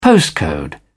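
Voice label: "postcode", British English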